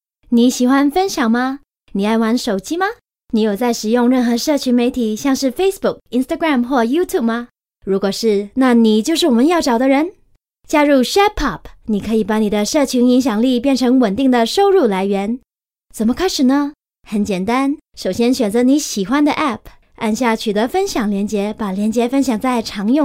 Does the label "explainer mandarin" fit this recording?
Yes